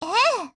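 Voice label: AGREE, Japanese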